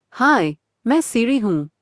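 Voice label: Indian English, Hindi; hi-IN; iOS 26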